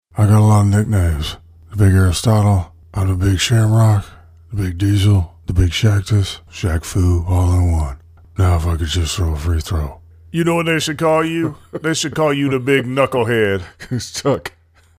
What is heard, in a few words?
impression; Shaq Shaquille O Neal